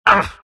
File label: tusk pain